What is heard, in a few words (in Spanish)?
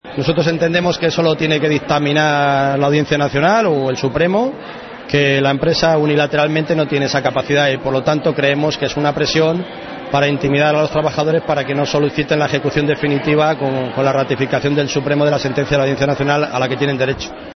noticias